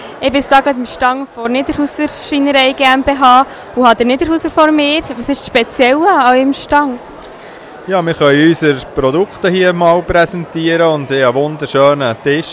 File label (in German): Interview; Niederhauser Schreinerei GmbH